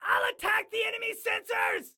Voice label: Self Attack Sensors, Excited Guy